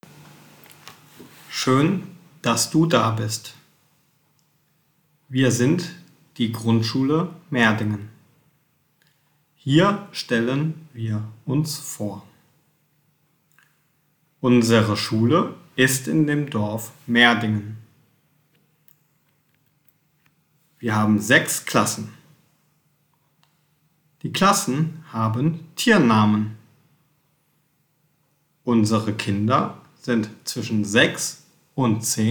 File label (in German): Leichte Sprache vorgelesen